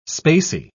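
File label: "spacy", English, British English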